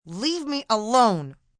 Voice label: Leave Me Alone, distress, help, female; Calls; Exclamation

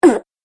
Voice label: Cartoon Game Voice "Ugh"

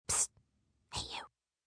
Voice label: Hey You Whisper, Calls, attention, female; Talking Sound Effects

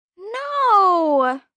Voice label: Whine "No", 1 girl, 12 yrs

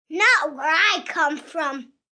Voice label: Not Where I Come Frm, 1 boy, 8 yrs

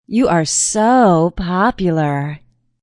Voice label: Woman Says You're So Popular; Talking Sound Effects

